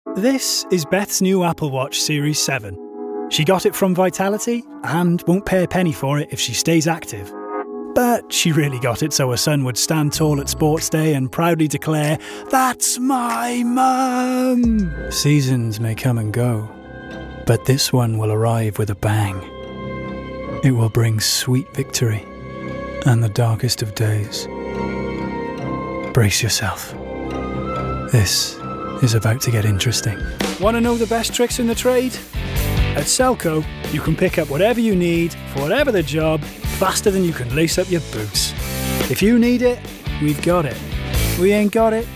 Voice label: male english welsh multiple accents compilation

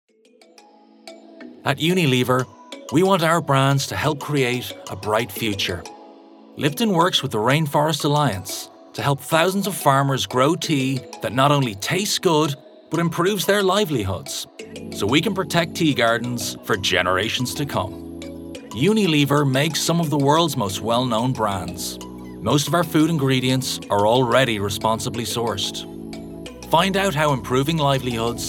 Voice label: male english irish neutral irish accent corporate; irish southern

